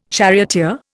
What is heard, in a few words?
"charioteer", Indian